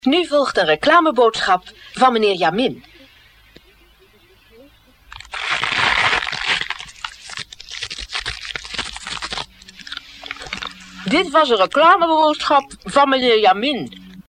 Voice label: commercial